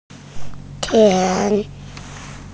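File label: "tian2", Mandarin Chinese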